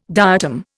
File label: "diatom", Indian